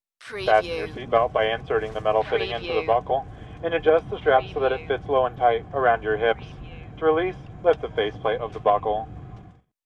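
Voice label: Steward Seatbelt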